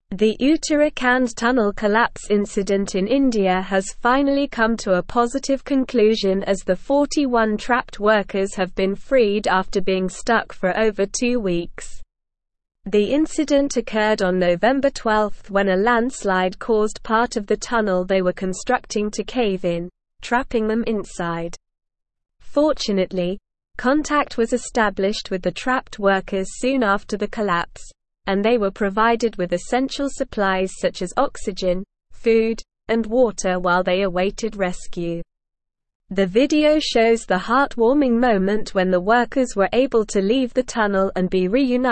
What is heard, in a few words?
English Advanced SLOW Reading; English Newsroom; 41 Indian Workers Rescued After Being Trapped in Tunnel